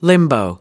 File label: "limbo", us